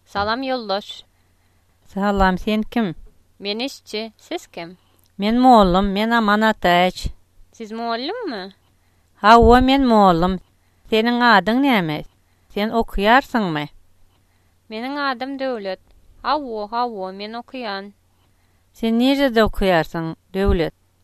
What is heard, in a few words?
dialogue, turkmen